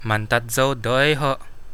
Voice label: i'm from, kadazan

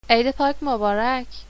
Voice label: happy easter, persian

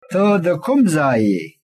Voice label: where from, pashto

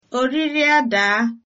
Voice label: bon appetit, igbo

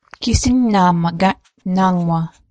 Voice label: it is cold, ojibwe

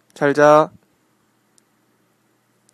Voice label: good night, Korean

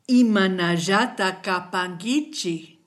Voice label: how are you, kichwa

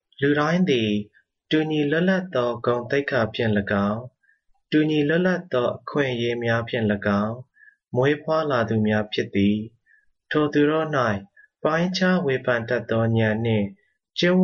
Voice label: Burmese

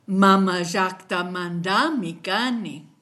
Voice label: i'm from, kichwa

